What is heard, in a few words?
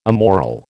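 "immoral", American English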